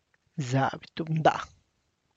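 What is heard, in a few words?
Tlacochahuaya Zapotec; a piece still hanging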